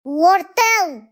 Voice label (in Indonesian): Suara Ceria Anak "Worteel!"